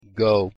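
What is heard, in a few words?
pronunciation "go", English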